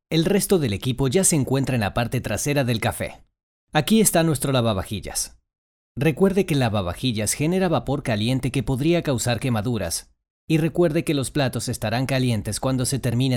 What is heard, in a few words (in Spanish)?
Neutro